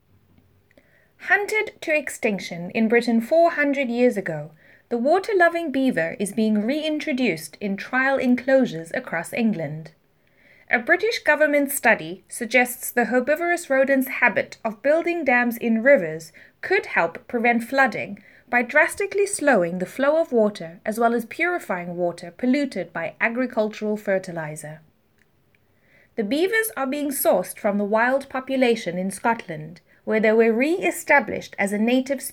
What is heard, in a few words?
News